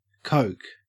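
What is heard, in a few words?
"coke", Australian English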